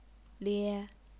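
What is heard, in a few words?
"lie", Chinese, first tone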